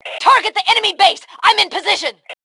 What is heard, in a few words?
Target Base, Female